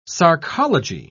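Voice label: "sarcology", British English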